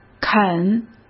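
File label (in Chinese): "啃", Mandarin; chinese flashcards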